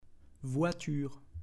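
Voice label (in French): "Voiture", French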